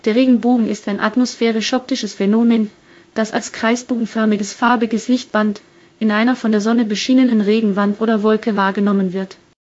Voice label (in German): speaker, de DE; piper-voices